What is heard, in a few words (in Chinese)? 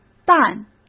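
"蛋", Mandarin Chinese; hsk; chinese-flashcards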